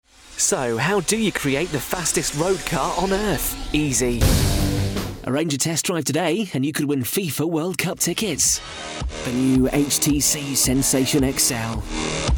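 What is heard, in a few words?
British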